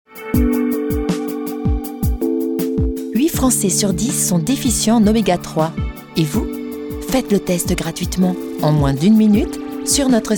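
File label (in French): Pub Interne invitante posee, voix off féminine; Films Institutionnels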